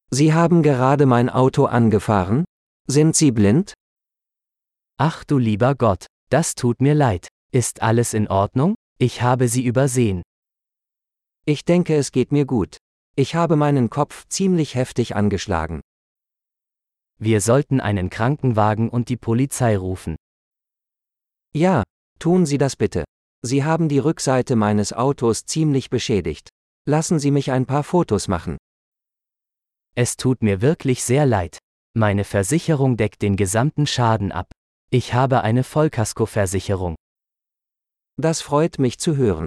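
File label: A Car Accident - Dialogue, German; de-en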